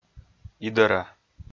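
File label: "идӧра", Komi-Zyrian, male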